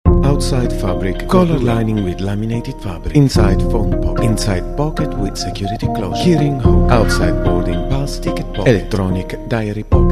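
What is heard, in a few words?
Italian, English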